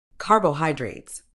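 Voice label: "carbohydrates", British English; ˌkɑː.bəʊˈhaɪ.dreɪts; kah-boh-HIGH-drayts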